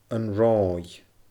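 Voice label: "An Ròimh", Scottish Gaelic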